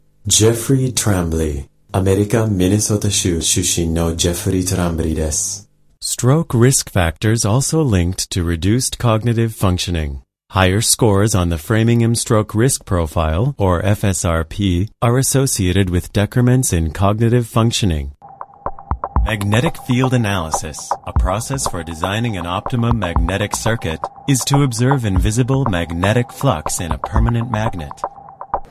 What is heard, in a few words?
usa man